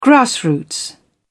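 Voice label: "grassroots", British English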